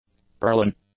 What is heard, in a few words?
"purlin", English; nouns